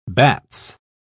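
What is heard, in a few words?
"bats", British English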